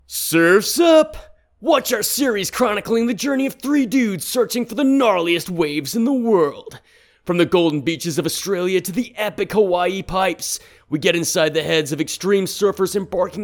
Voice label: USA California